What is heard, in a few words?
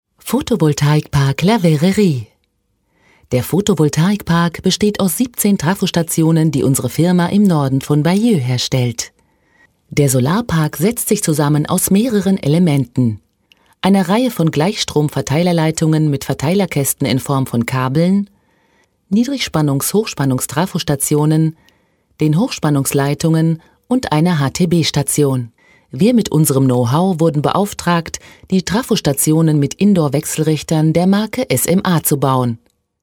de informative; tysk